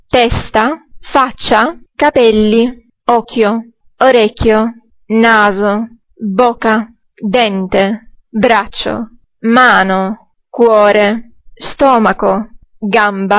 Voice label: italian body